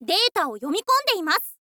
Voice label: info girl